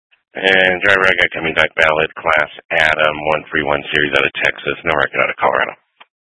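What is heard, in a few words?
Troop 2A Dispatch, scanner audio recording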